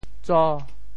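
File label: "tso5", Teochew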